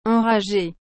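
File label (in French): "enragé", French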